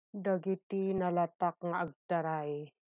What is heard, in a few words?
Ilocano; notable people running; dagiti nalatak nga agtaray